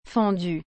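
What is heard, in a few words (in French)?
"fendu", French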